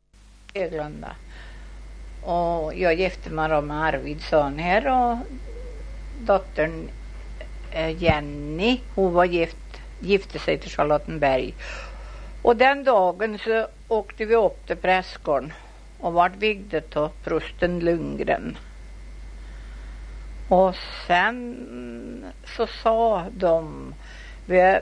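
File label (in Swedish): Köla Värmland, Svenska sagor, dialekter; Folkminnen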